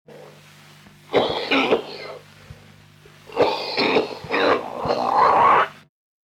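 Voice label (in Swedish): Allerum Skåne, dialekter svenska sagor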